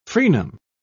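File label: "frenum", British English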